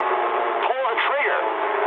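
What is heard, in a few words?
English attack position mood med; War Thunder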